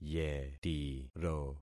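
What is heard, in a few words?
"yetiro", concatenated syllables; Affixing experiment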